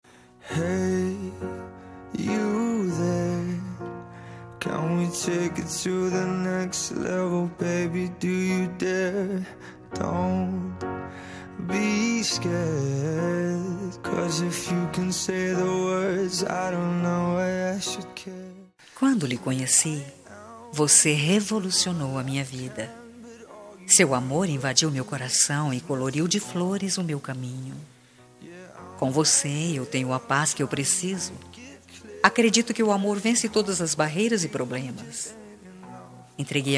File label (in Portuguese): namorado fem, Dia dos Pais para Namorado – Voz Feminina